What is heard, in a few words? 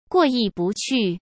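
"过意不去", Mandarin Chinese; hsk; chinese flashcards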